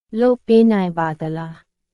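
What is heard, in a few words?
Myanmar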